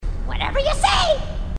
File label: grunt; h2dialogue; grunt crazy